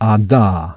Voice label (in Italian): Più arrotondata; IPA; Labializzata